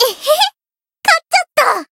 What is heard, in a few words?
win jp; ciel